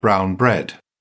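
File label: "brown bread", British English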